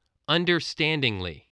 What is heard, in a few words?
"understandingly", English spelling voice